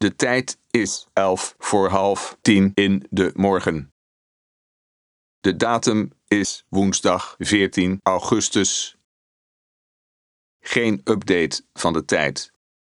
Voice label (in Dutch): Sprekend horloge mannenstem spraak